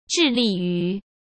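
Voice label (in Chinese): "致力于", Mandarin Chinese; chinese-flashcards; hsk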